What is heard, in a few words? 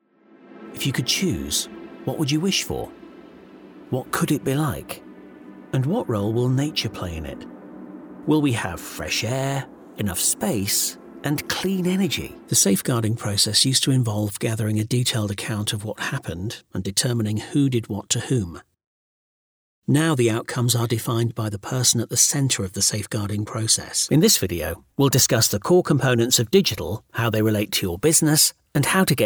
uk elearning